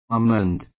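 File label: "almond", British English, male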